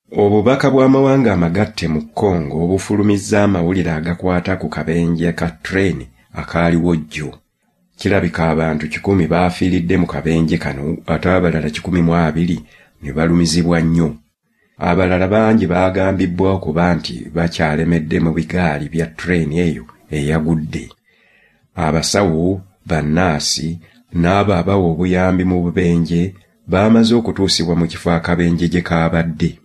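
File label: Luganda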